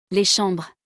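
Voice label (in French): "Les chambres", French; vocabulaire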